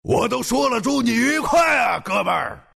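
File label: deny, chinese; dota2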